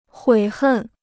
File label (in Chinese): "悔恨", Chinese